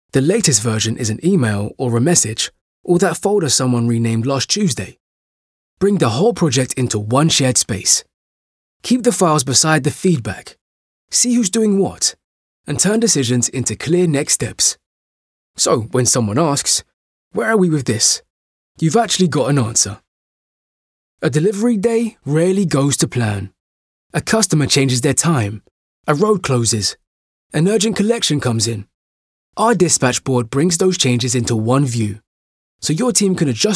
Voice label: explainers

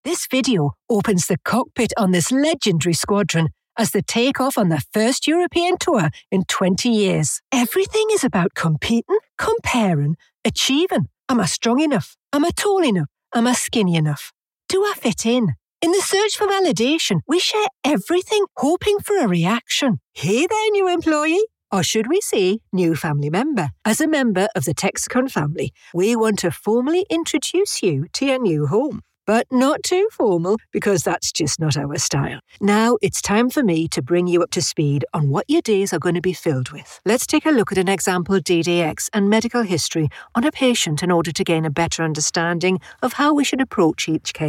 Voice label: Geordie narrative

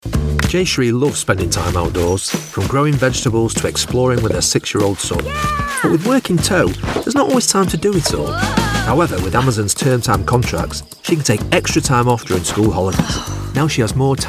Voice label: corporate, voiceover

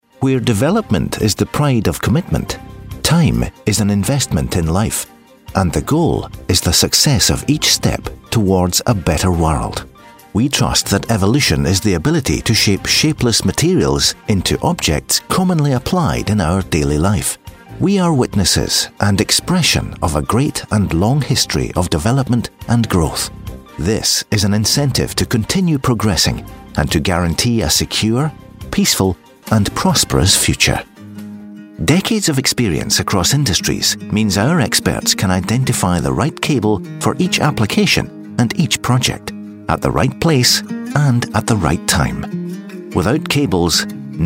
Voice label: explainer

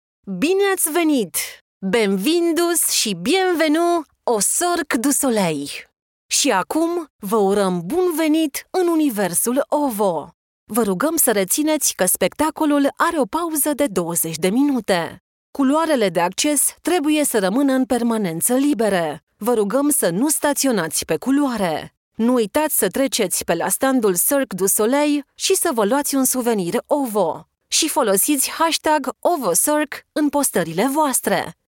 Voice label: Romanian voice of god

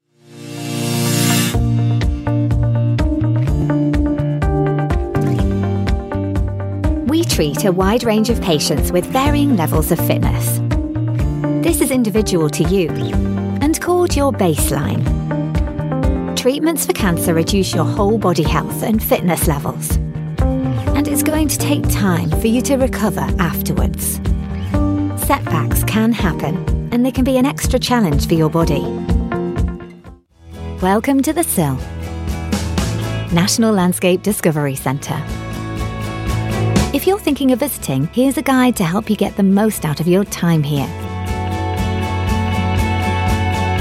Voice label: natural

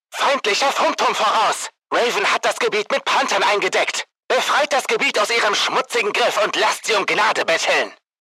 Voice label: german voice video game

